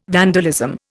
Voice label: "vandalism", Indian